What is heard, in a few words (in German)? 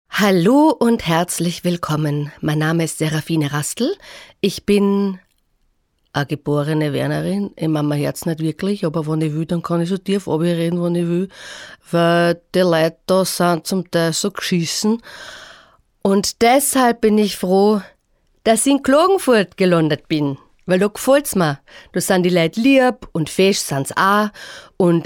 Dialekt DE